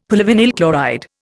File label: "polyvinyl chloride", Indian; Dictionary